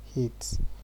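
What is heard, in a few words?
"hit" Nigerian Pidgin, male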